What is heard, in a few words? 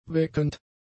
"weckend", German; adjectives